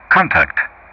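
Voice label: "contact", English; Ka-50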